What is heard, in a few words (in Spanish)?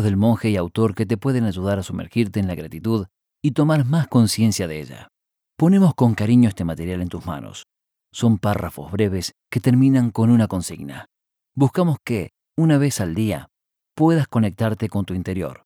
audiolibro detenernos muestra